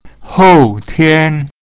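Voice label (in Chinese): "hou tian", Mandarin Chinese pinyin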